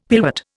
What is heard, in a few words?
"pillwort", Indian; Dictionary